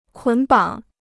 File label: "捆绑", Chinese